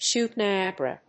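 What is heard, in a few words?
"shoot Niagara", English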